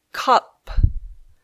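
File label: "cup", English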